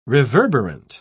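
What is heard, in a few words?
"reverberant", British English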